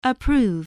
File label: "approve", English